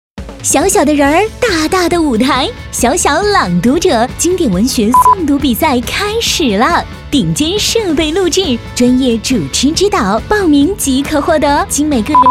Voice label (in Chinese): 女76 宣传片 亲切甜美 少儿类片花